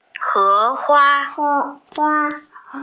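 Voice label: "he2 hua1", Mandarin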